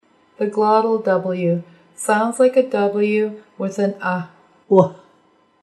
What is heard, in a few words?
glottle w